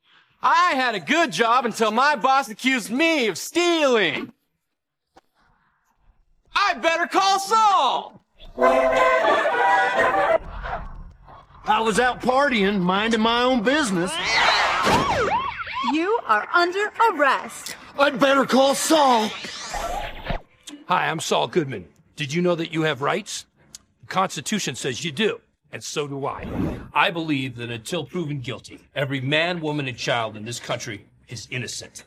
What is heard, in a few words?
Commercial vocals; better call saul commercial hd best quality